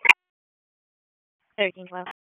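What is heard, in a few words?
Police Dispatch, p25; Raleigh; Downtown District; wake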